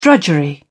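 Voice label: "drudgery", British English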